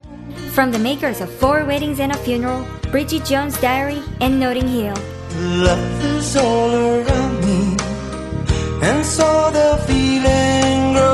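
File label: Korean English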